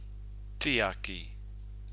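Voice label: "tiaki", Māori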